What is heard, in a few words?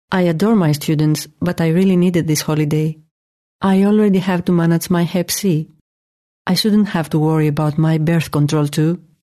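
Greek, female, English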